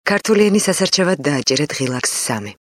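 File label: georgian female narration; voice demos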